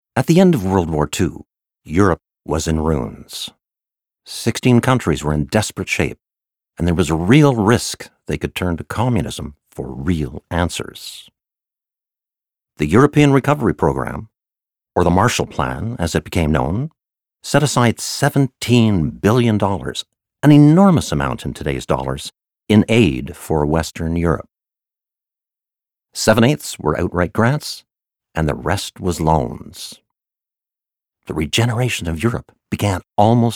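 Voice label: AudioBook, male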